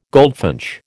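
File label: "goldfinch", American English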